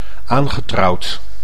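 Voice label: "aangetrouwd", Dutch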